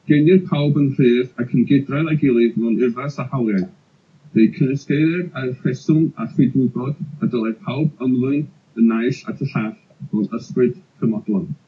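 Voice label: Welsh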